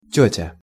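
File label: "tetja", Russian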